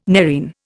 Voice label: "nerine", Indian

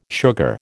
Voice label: "sugar", American

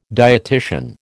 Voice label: "dietitian", American

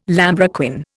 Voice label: "lambrequin", Indian

